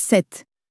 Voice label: "sept", French

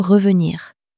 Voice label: "revenir", French

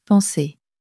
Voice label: "penser", French

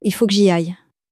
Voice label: il faut que j'y aille, common french words

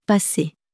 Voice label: "passer", French

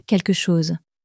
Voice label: "quelque chose", French

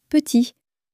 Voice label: "petit", French

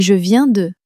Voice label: je viens de, common french words

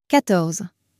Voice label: "quatorze", French

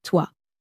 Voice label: "toi", French

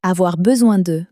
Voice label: "avoir besoin de", French; flashcards

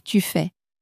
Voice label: "tu fais", French

